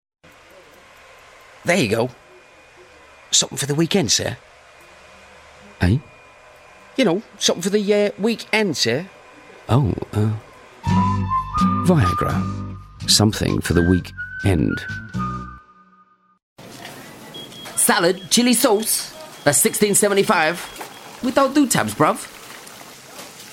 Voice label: Character Commercials